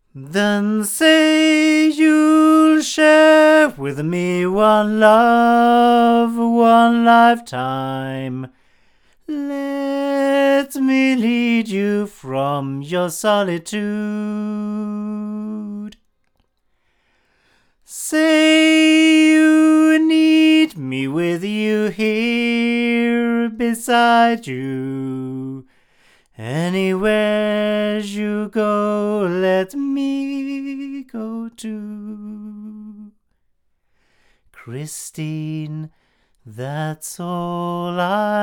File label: male; LineAudio SM3i; microphones